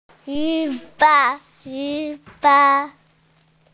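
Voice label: "si1 fa3", Mandarin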